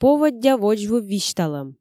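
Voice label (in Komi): поводдя водзвыв висьталӧм, Komi, female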